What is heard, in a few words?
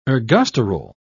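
"ergosterol", British English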